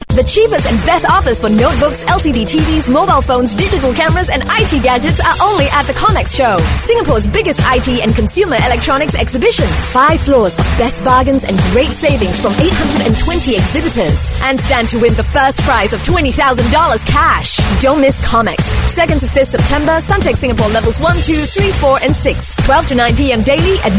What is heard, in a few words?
Speedy, English Singaporean Female; ComexShow